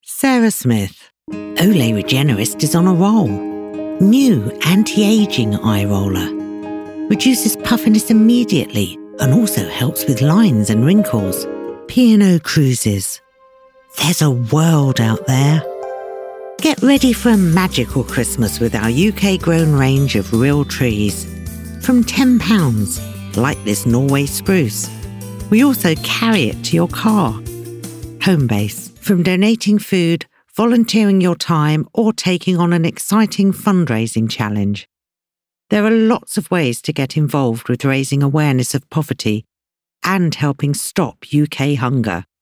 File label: commercial voice